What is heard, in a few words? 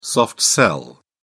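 "soft sell", British English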